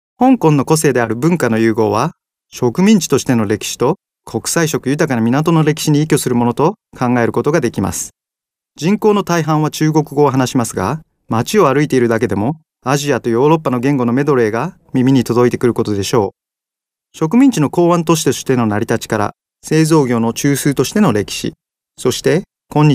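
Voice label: Japanese male corporate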